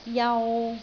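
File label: "jau3", Cantonese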